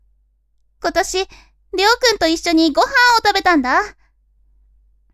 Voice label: women